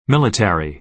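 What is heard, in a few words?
"military", American English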